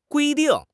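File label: "gui1 ding4", Chinese; HSK4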